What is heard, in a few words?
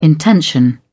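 "intention", British English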